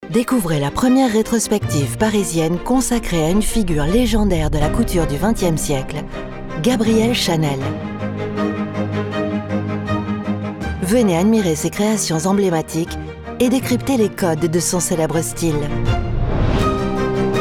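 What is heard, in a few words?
French, female, PUB TV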